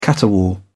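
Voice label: "caterwaul", British English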